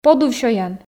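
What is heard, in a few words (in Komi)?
Komi, подув сёян, female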